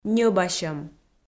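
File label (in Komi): "ньӧбасьӧм", Komi, female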